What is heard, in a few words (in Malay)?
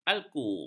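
"alku", Malay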